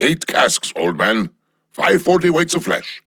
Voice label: Ost Male en; Cetus